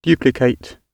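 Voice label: "duplicate", British English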